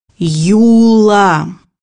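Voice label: "spinning top", Russian; bukvar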